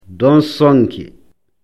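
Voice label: "donsonke", Fula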